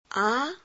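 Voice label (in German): Phoneme, chinesisch; a 2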